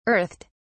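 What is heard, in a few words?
"earthed", British English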